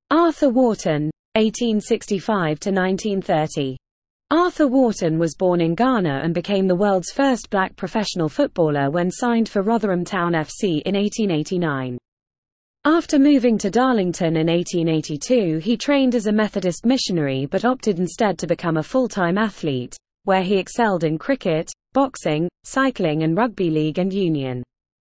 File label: Female